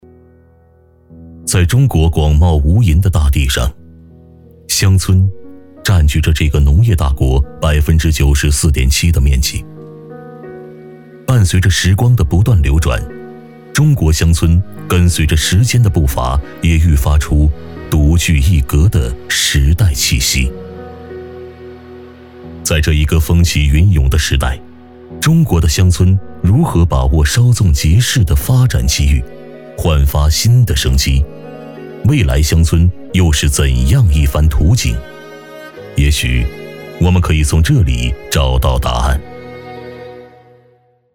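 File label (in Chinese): 男【宣传】乡村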